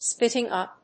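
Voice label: "spitting up", English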